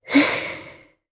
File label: Vox Casting jp; Yuria; kingsraid